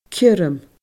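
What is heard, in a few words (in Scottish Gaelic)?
"ciorram", Scottish Gaelic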